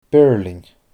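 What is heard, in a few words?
"biirlinn", Scottish Gaelic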